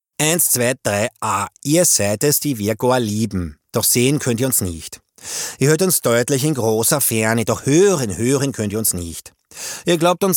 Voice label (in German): Akzent österreichisch, maenner